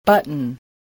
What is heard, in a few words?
"button", English